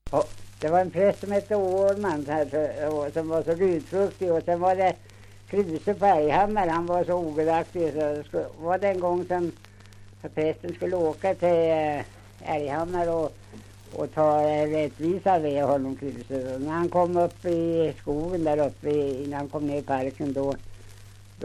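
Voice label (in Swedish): Björnlunda Södermanland, svenska sagor dialekter; Folkminnen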